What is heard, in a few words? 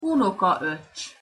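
"unokaocs", Hungarian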